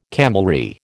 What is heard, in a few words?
"camelry", American